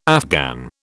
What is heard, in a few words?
"Afghan", British